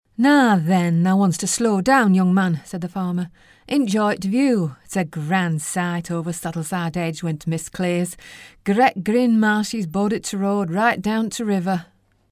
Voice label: yorkshire accent

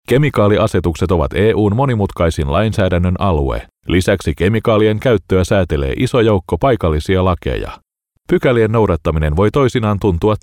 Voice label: finnish male narration

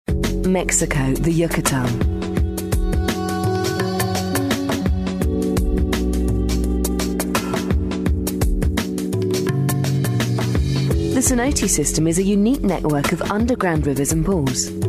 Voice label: documentary

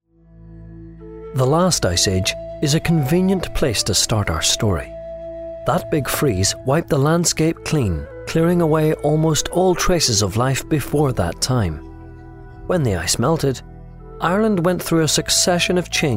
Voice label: narration

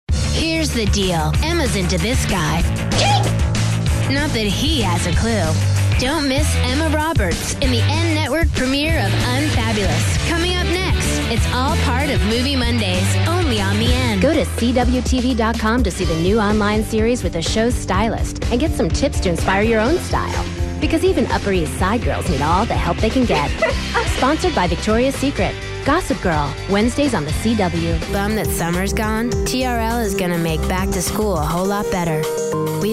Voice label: american female promos

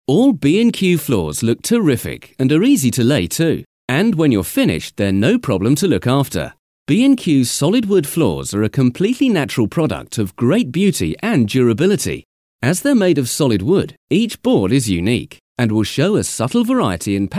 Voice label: corporate light

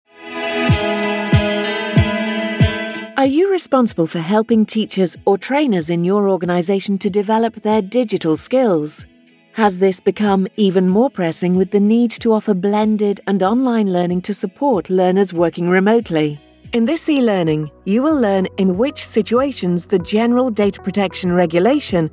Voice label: elearning

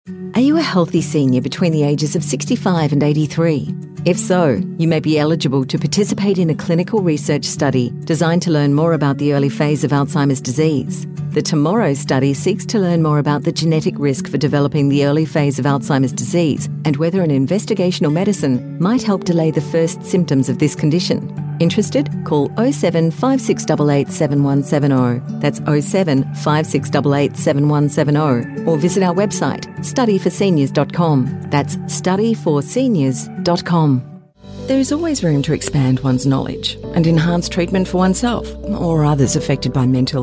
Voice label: corporate

